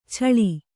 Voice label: "ಚಾಳಿ", kannada